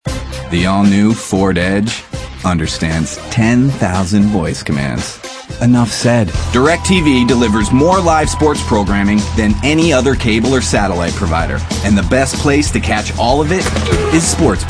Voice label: male, mid 30s mid 40s, cool